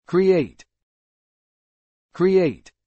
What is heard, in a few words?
"create", English